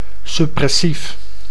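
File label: "suppressief", Dutch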